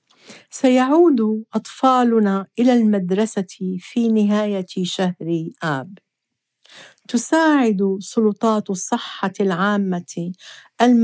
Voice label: Message ARAB

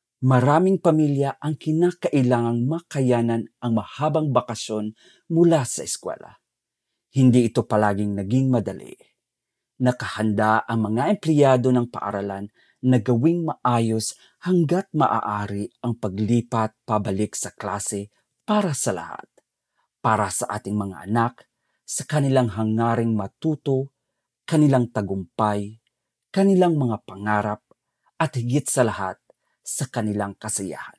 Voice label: Message Tagalog

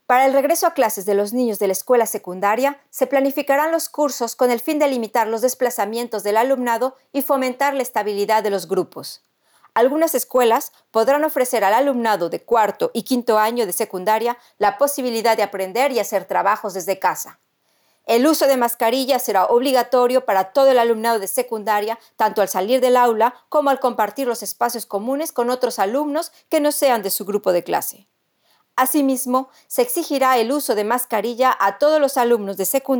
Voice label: Espagnol

